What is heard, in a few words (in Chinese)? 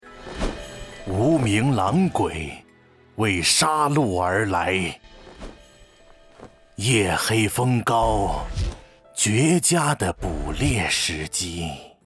游戏, 魔王男